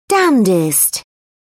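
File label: "damnedest", British English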